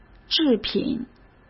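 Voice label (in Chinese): "制品", Mandarin Chinese; hsk; chinese-flashcards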